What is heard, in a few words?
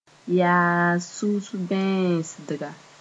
"yaas-sus-bẽesdga", moore